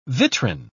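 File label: "vitrine", English, British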